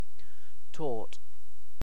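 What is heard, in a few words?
"tort", British English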